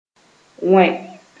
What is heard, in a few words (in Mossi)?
"wẽ", moore